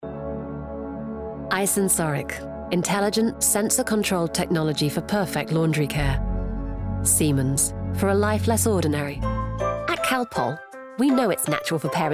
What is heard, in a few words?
vo showreel commercial long